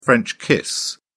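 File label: "french kiss", British English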